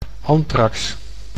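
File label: "anthrax", Dutch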